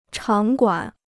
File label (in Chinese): "场馆", Chinese